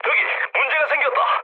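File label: Korean aircraft damaged mood medium; War Thunder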